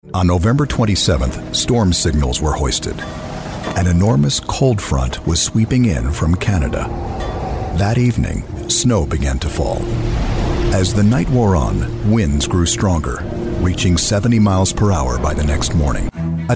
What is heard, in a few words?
Narration